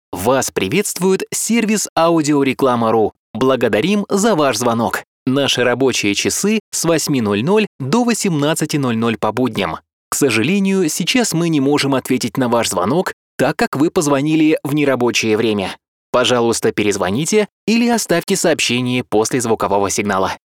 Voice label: IVR